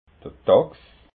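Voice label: "dachs", Alsatian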